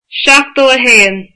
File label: seventy one, irish